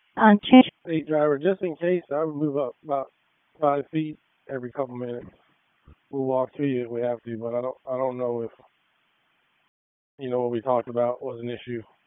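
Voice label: Public Safety Tactical, p25; wake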